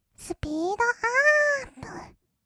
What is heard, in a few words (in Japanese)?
すぴーどあーーーっぷ, kawaii voice; 音ゲー